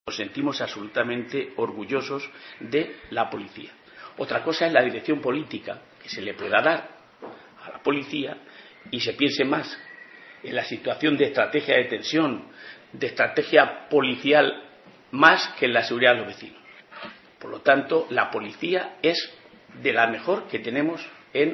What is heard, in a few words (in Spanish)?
noticias